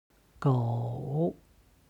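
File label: "gou", Chinese; 狗; 犬; HSK Level One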